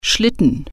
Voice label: "sled", German

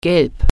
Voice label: "yellow", German

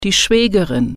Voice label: "sister in law", German

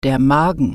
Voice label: "stomach", German

